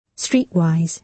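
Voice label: "streetwise", British English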